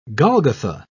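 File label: "golgotha", British English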